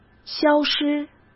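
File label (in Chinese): "消失", Mandarin Chinese; chinese flashcards; hsk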